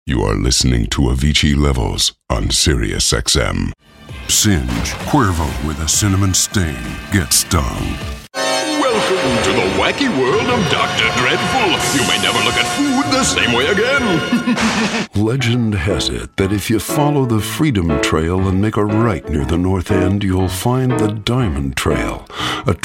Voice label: american, Deep Compelling Scary American Voice Over